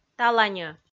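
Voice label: "таланьӧ", Komi, female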